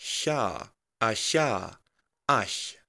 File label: voiceless Palatal Fricative